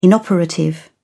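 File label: "inoperative", British English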